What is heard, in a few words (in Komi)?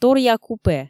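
торъя купе, Komi, female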